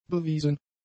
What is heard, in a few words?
"bewiesen", German; adjectives